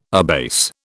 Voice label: "abase", British

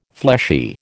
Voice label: "fleshy", American

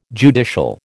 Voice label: "judicial", American

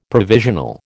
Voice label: "provisional", American

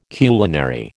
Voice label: "culinary", American

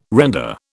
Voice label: "render", British

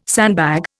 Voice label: "sandbag", Indian

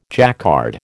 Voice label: "Jacquard", American

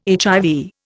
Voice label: "HIV", Indian

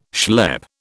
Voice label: "schlep", British

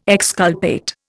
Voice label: "exculpate", Indian

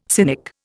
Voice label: "cynic", Indian

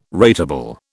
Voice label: "ratable", British

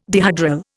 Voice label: "dihedral", Indian

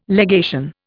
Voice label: "legation", Indian

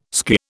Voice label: "skirr", British

